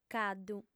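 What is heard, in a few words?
"caddu", female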